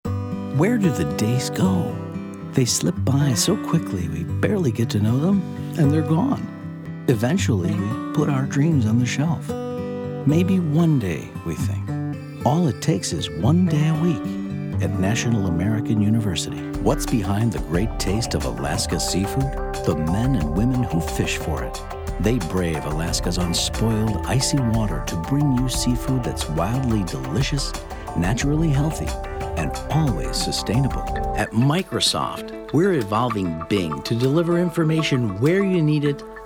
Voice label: commercial, voice